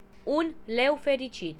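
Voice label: lion, Romanian; tuxpaint-stamps; animals; mammals; cats; cartoon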